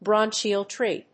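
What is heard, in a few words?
"Bronchial tree", English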